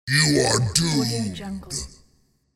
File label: monster saying you are doomed; applehillstudios